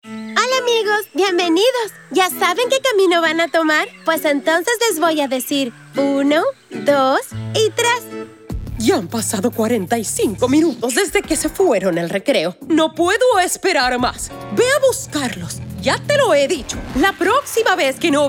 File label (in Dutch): spaans